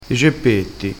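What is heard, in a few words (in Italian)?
"geppetti", vocabolario dialettale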